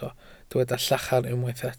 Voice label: "llachar", Welsh